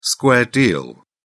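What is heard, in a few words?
"square deal", British English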